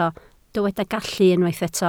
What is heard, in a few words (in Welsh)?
"gallu" Welsh